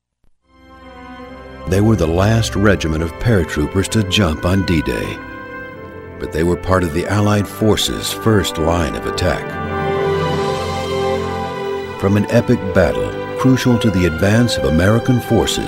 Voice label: Narration

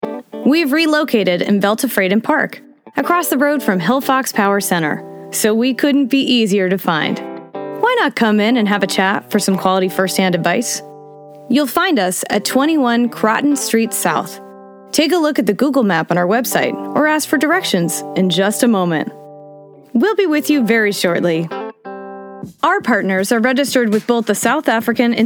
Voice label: IVR

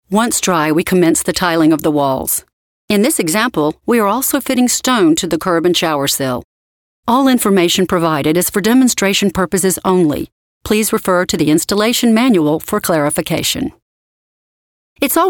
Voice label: instructional

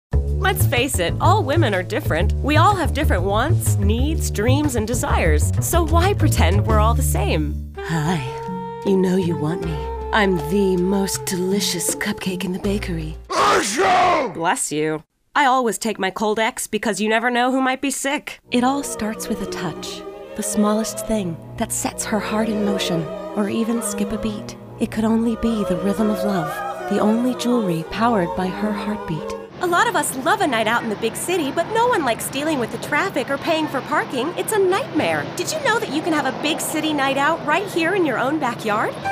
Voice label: Commercial Voice

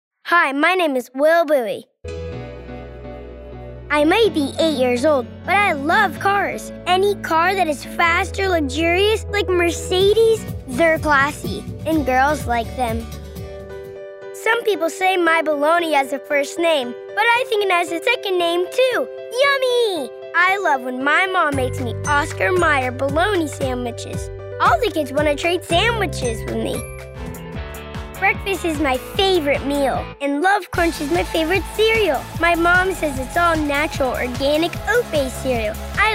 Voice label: VO Commercial

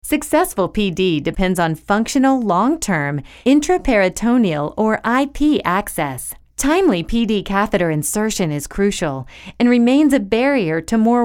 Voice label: Medical Narration

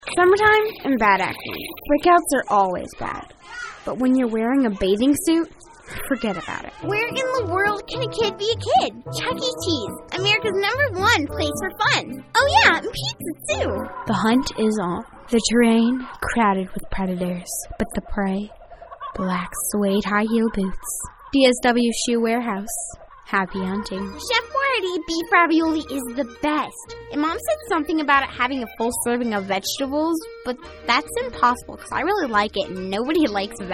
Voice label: VO Commercial

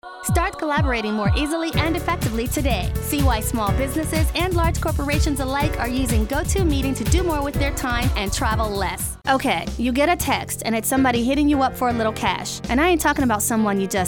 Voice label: Commercial

